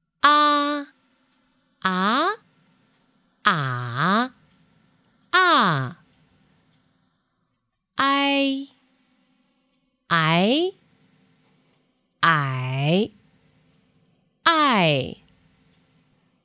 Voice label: 培训课件 拼音课件, 女

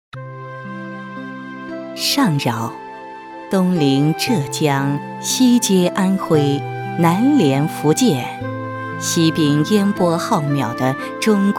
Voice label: 宣传片 城市 上饶 讲述

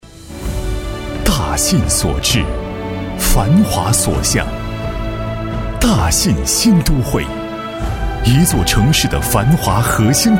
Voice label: 男 宣传片 招商视频旁白 大气; 大信招商视频旁白

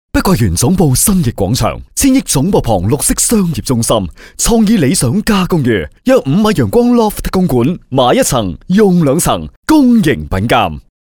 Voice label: 男粤 广告 地产; 粤语; 碧桂园

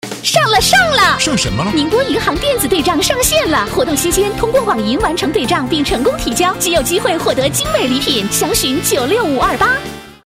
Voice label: 广告 银行 电子对账 活力, 女国; 宁波银行

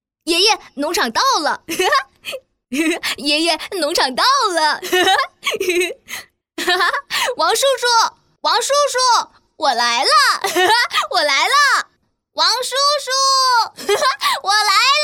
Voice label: 动画 童声 小男孩 男童样音

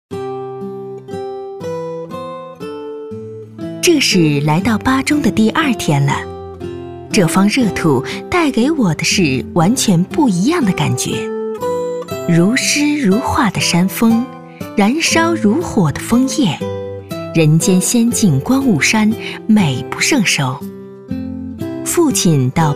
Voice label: 宣传片 旅游 温柔, 女; 巴中旅游

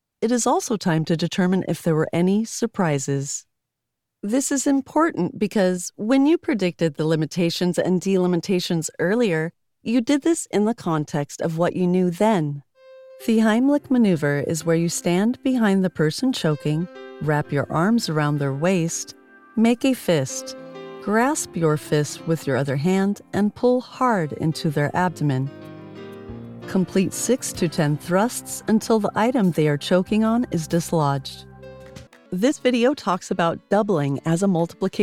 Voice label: 外籍女英 外语 美式英语 成熟

